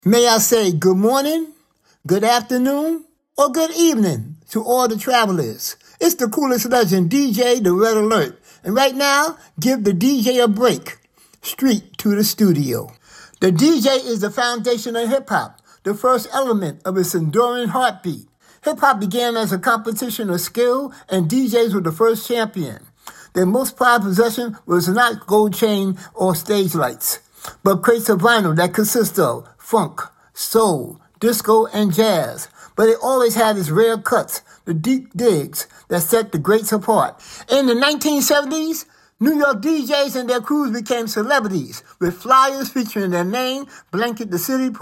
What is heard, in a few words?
Narration; BT50